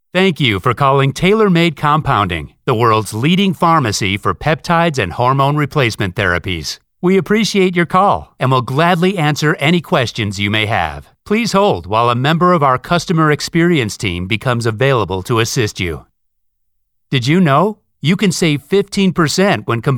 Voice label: US PHONE

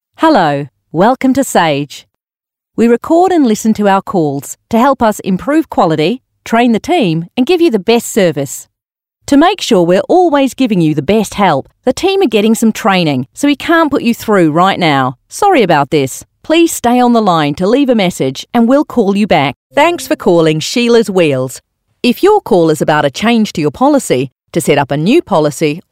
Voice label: AU PHONE

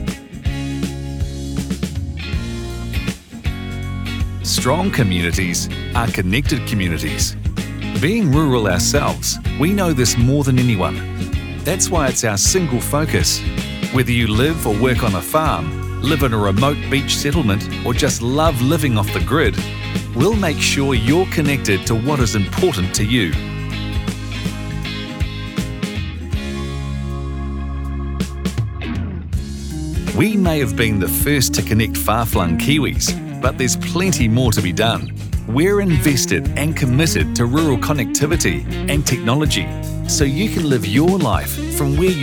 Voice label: On Hold Messaging; Farmside

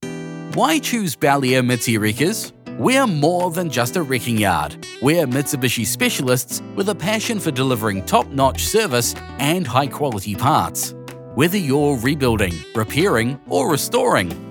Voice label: Phone On Hold Voice Overs; Belair Mitsi

